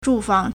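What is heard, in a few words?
"zhùfáng", Chinese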